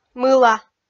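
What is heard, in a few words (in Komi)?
"мыла", Komi, female; kpv audio dict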